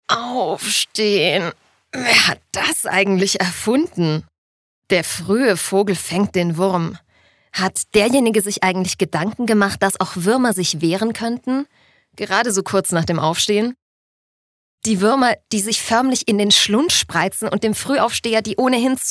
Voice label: Dynamisch; Der Fruehe Vogel

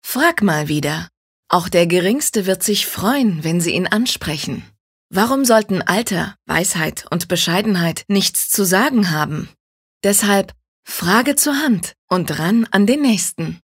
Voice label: Werbung Elitaer

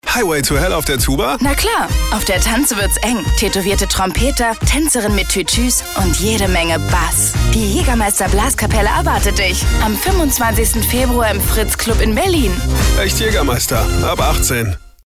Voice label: Werbung Neutral

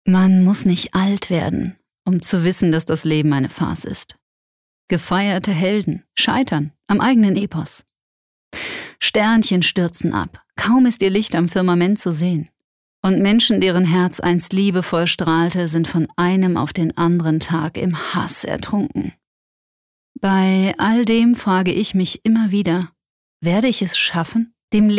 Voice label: Weltschmerz Sanft

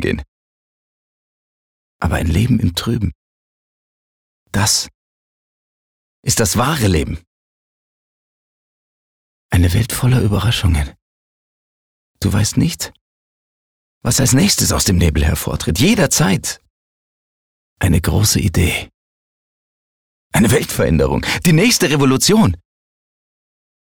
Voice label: Klarheit Dynamisch

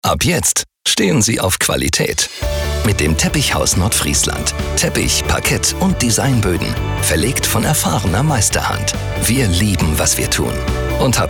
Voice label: W Fremdtake, Dynamisch

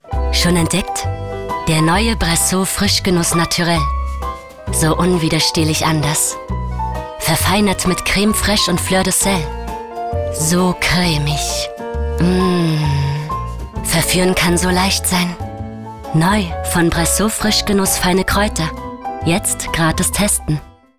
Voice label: Fremdtake Sanft

